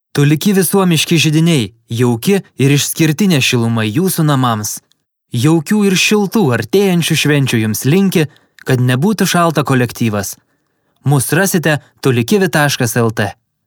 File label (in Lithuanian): reklama